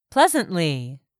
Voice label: "pleasantly", english; 3000 words